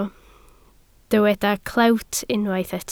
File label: "clewt", Welsh